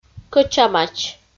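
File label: "кӧчамач", Komi, female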